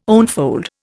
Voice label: "onefold", Indian